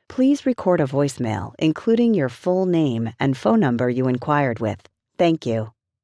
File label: After Hours Voicemail